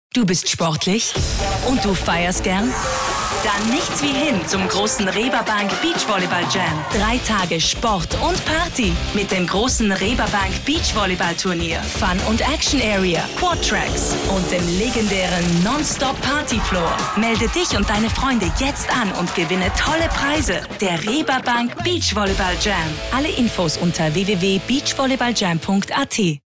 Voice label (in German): Frau OFF